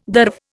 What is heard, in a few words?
"derv", Indian